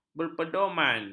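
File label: "berpedoman", Malay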